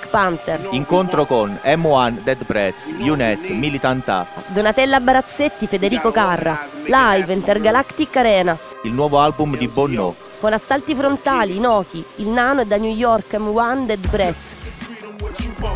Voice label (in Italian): spot compleanno strike; Archivio Spot